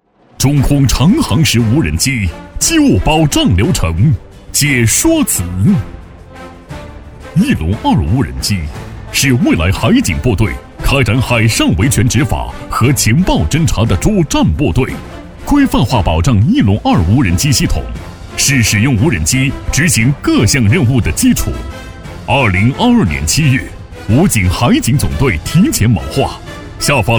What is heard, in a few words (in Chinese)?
男 部队宣传 激情大气; 大气浑厚